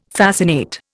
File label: "fascinate", Indian